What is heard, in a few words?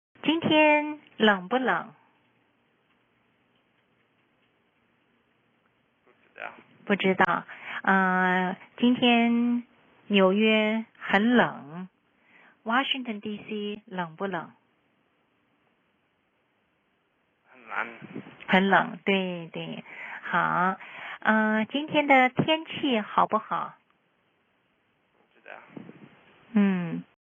chinese simple speaking novice